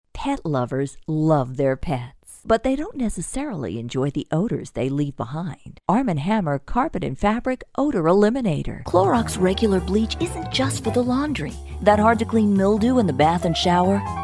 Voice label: Women Commercial